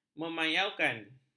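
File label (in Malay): "memayaukan", Malay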